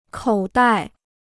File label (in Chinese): "口袋", Chinese